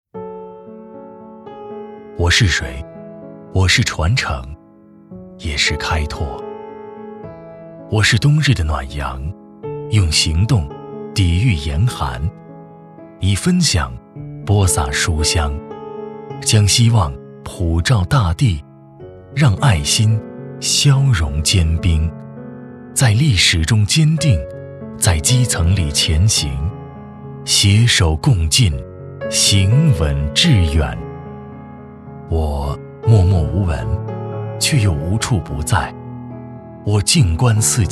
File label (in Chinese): 男 旁白; 国网海淀